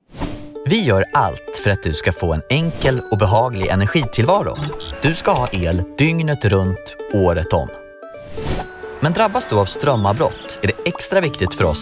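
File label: Swedish explainer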